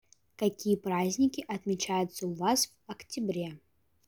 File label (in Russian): октябрь, русский